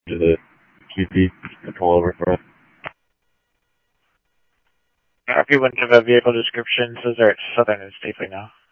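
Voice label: Mesa Simulcast Police Department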